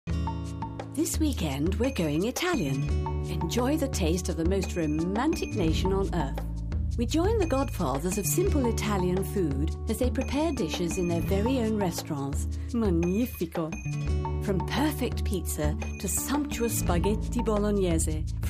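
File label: TV Promo Swedish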